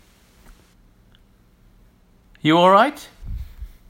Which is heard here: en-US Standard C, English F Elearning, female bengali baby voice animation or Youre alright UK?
Youre alright UK